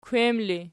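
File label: "kwem" ergative, Archi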